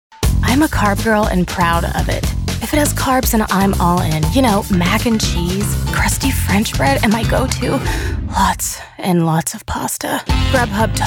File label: commercial, voiceover; commercial demo